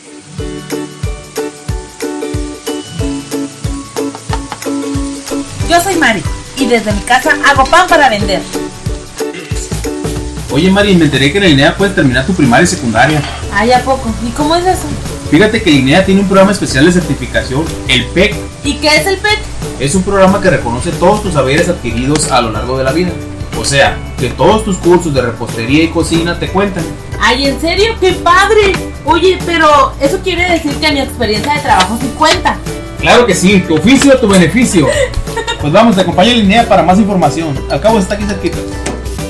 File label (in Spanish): Spot perifoneo panadera; PEC